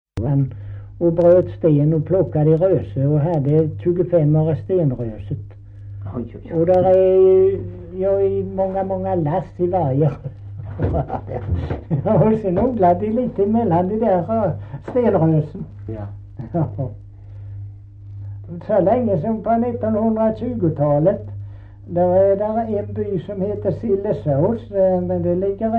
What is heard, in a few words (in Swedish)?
Kristianopel BLEK, dialekter; Folkminnen